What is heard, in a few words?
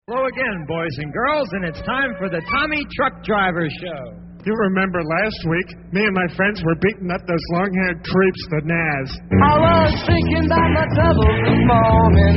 band promo, radio spots; psychedelic promos and radio spots